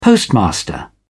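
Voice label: "postmaster", British English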